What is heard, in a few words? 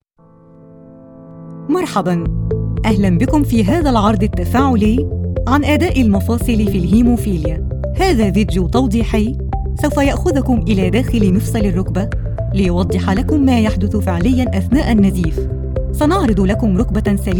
Arabic Female